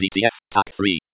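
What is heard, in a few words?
DTF Tac 3, Computer Voice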